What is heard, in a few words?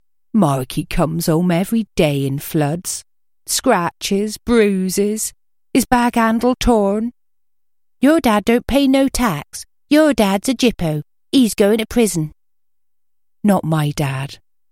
West Country